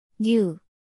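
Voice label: "뉴", Korean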